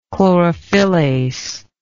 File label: "chlorophyllase", English